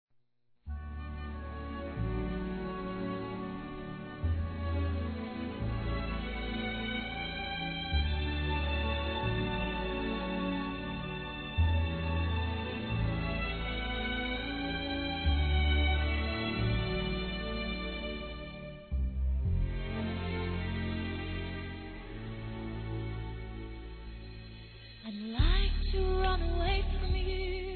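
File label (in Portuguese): penso em vc toda hora, feminino; Telemensagens; Românticas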